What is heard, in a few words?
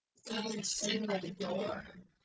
calm disgust generated, speech; GAN for speech spectrogram